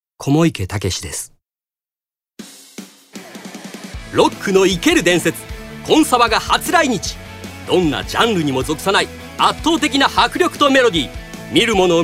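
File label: commercial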